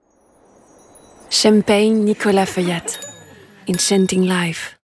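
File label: English; NicolasFeuillate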